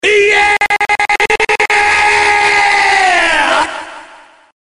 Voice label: DJ Effect, "Iyeeeee"